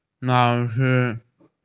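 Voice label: "teacher", Mandarin; lao3shi1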